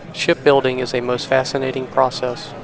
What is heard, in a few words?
Noisy Speech Babble Noise SNR 15 dB